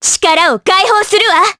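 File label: Vox Skill, voices, Japanese; kingsraid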